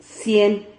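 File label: "cien", Spanish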